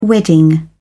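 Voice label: "wedding", British English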